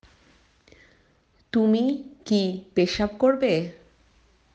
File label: Bangla; bengalese